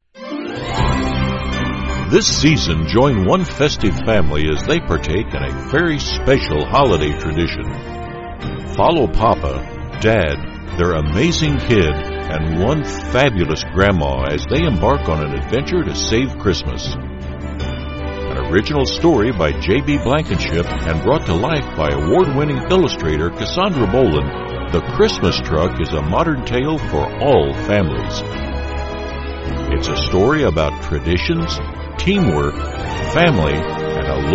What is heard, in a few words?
book promotion